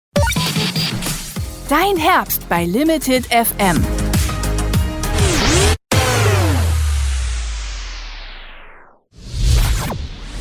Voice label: Station Voice